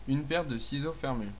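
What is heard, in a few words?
scissors small closed desc, French; tuxpaint-stamps; household; arttools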